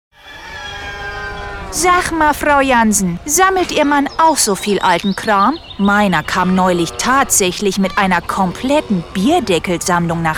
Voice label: North German dialect